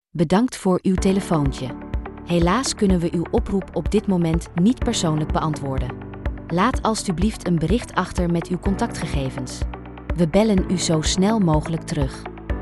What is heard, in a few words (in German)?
standard ansage